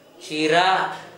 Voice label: "tʃira", Gta, Dialect: Hill; brown